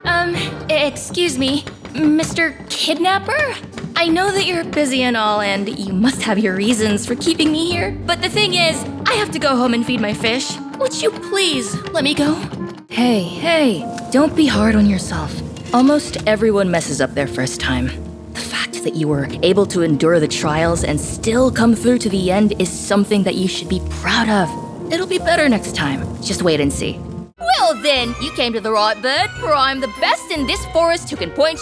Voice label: animation